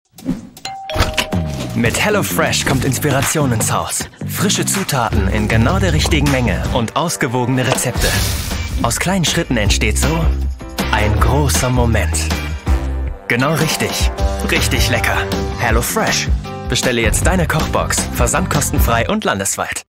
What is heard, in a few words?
Commercial off, Lecker; HelloFresh; LOFT VOICES